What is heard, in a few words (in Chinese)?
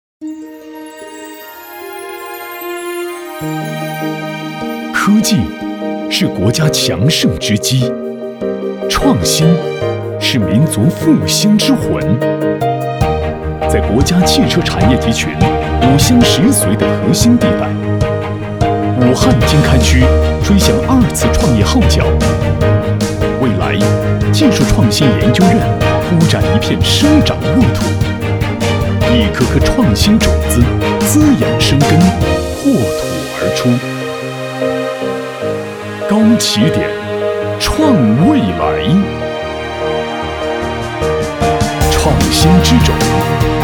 男 品质讲述 科技感; 创新沃土